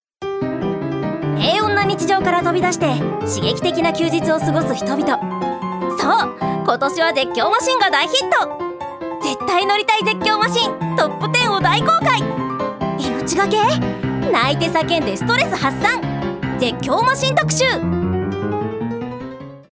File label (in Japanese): ナレーション, 女性; 女性タレント